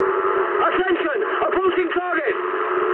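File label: English near waypoint mood high; War Thunder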